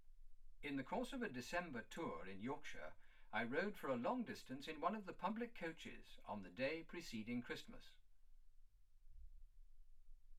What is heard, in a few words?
male speech english